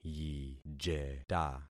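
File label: "yijeta", concatenated syllables; Affixing experiment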